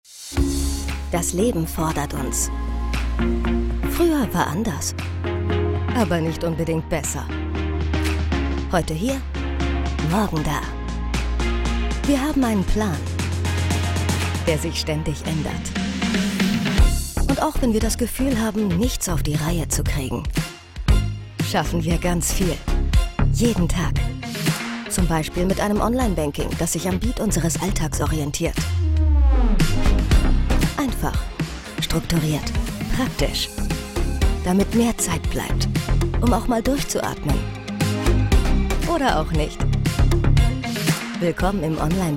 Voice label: Imagefilm; Sparkasse

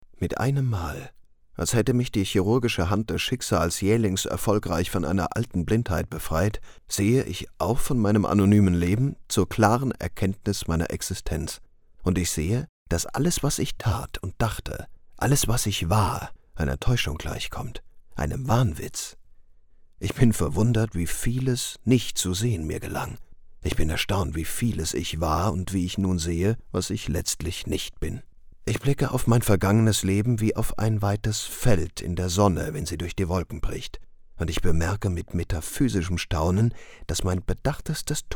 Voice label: Hörbuch; Pessoa

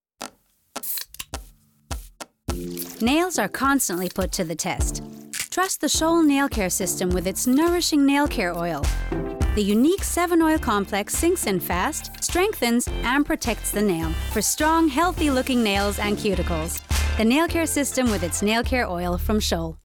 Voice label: englische

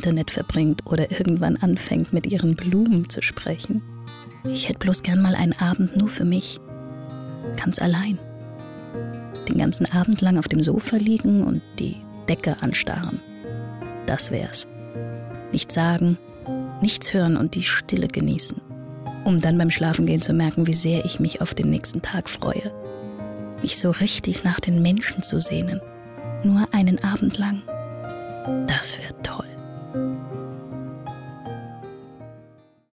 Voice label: erzaehler einsam

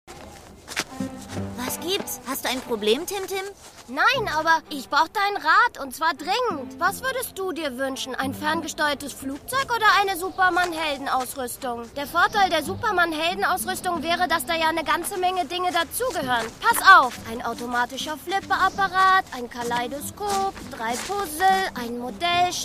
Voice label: Horspiel; Weihnachsmann Junior

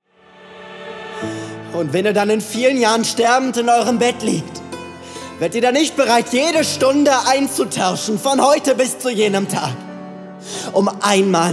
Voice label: Drama, Audio Drama (Hörspiel), German, man

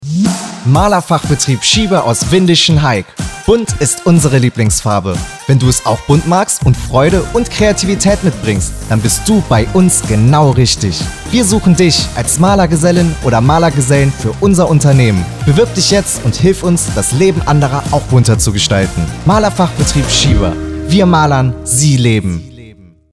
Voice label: Werbung Off Maler Jobspot Malergeselle

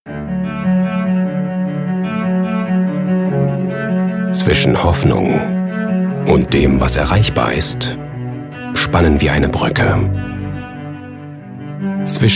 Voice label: Imagefilm; Cisco

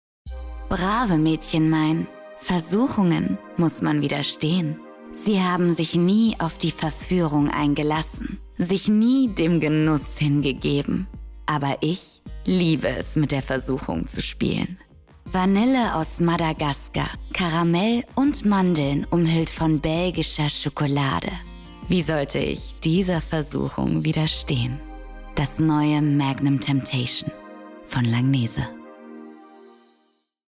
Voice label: Werbung DE; Magnum Temptation

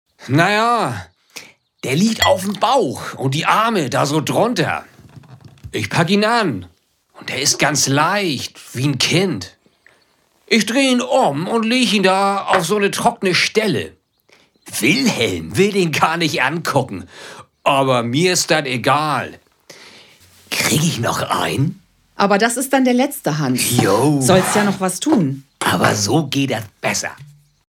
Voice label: Hoerspiel Dialekt norddeutsch; LOFT VOICES

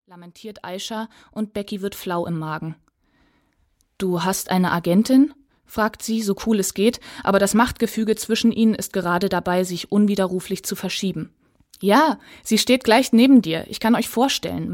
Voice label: Hoerbuch; LOFT VOICES

